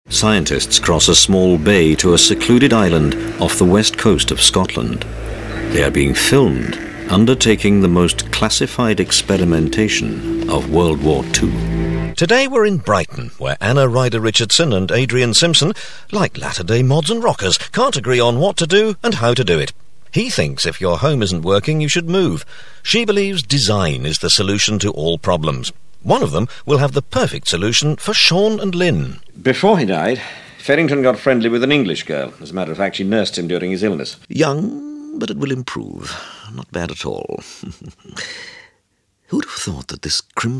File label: Television Idents and Narration Samples